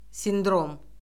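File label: "sindrom", Azerbaijani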